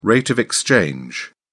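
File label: "rate of exchange", British English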